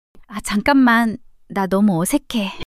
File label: shy; monologue